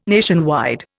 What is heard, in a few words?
"nationwide", Indian